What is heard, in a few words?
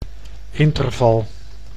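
"interval", Dutch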